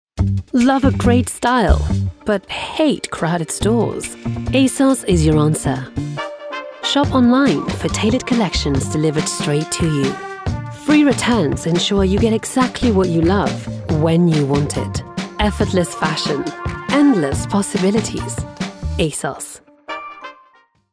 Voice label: transgender female english commercial; asos

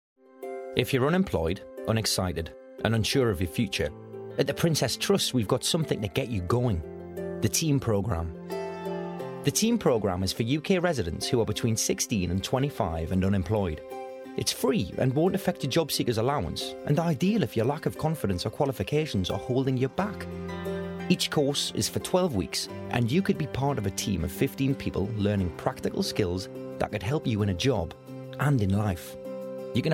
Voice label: male english geordie accent appeal; the princes trust

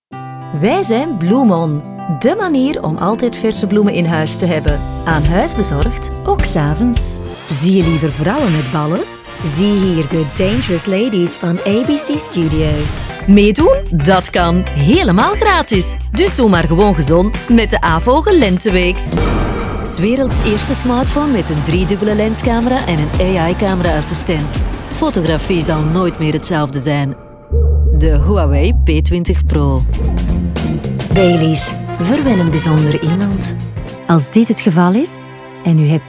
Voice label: female Flemish commercial compilation

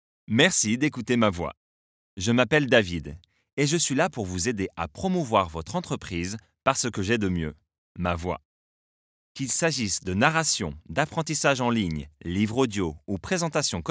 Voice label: male french introduction

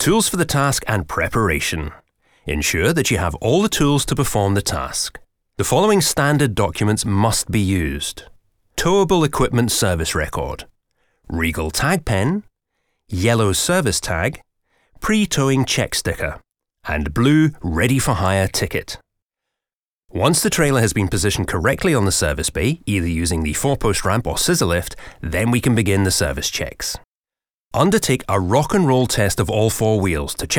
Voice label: male english scottish explainer video

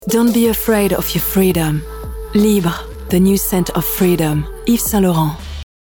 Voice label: female English with French accent commercial; voices; ysl

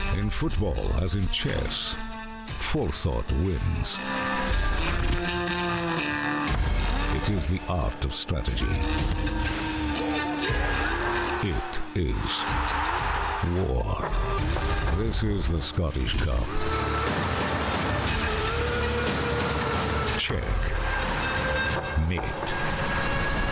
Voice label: male english scottish football promo; scottish cup

